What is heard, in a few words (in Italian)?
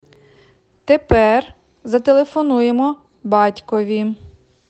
Ucraino